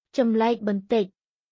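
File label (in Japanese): クメール語（カンボジア語）の日常会話基本フレーズ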